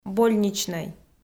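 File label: "больничнӧй", Komi-Zyrian, female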